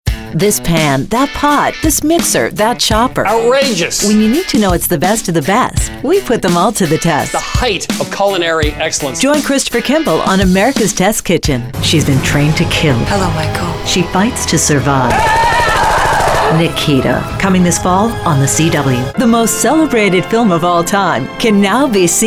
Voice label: promo